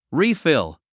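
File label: "refill", English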